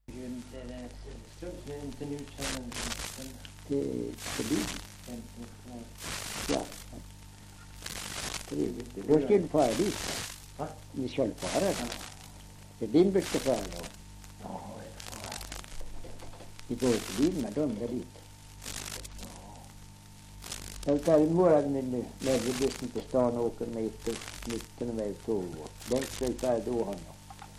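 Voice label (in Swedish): Tuna Medelpad, dialekter, Svenska sagor; Folkminnen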